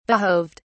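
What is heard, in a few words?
"behoved", British English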